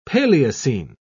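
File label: "paleocene", British English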